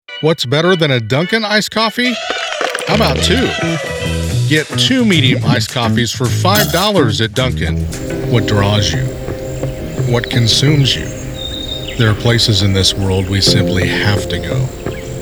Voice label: Commercial